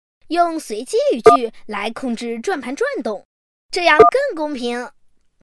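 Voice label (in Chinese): 女大音-男真童; 真童配音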